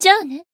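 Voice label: Voice finish, Japanese; toova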